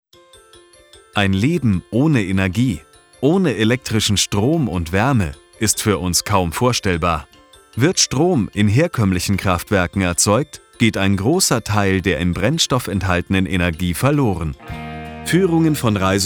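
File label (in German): Kommentar, Variabel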